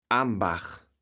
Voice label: "Ambach", platt

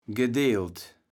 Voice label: "gedeelt", Kaevels Platt

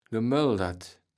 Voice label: "gemöldert", Kaevels Platt

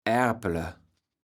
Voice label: "Aerple", platt

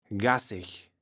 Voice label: "gasseg", Kaevels Platt

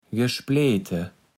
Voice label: "gespleete", Kaevels Platt

